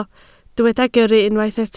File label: "gyrru", Welsh